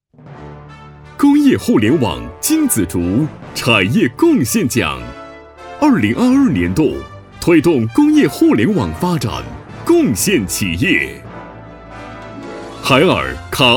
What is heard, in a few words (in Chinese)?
颁奖企业大气颁奖类型